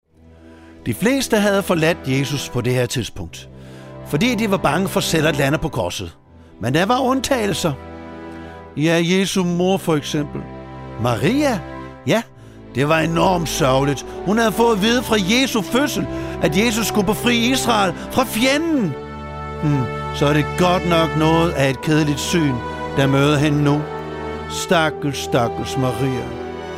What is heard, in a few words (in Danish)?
drama med speak; 10 Maria